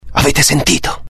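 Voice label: curious, Italian; mohaa; dialogue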